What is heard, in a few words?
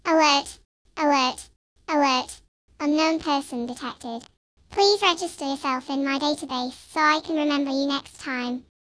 ALERT ALERT ALERT; Mentor Mitra AI Edge Powered Robotic Mentor